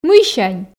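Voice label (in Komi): "мыйсянь", Komi, female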